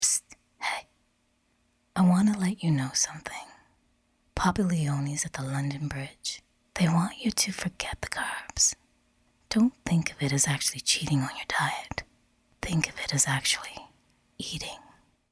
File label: narration female